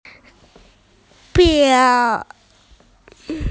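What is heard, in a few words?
"ping2", Mandarin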